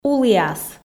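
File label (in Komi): "уліас", Komi, female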